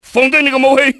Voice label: drop your weapon, enemy cantonese male; XBOX